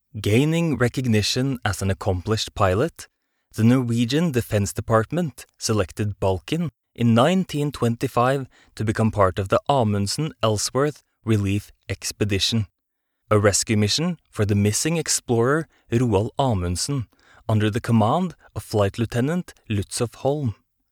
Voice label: male norwegian english with norwegian accent narration; Devine Voices